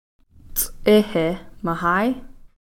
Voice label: "ts'ixi-mahai", Cow Creek; horse